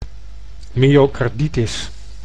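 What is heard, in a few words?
"myocardititis", Dutch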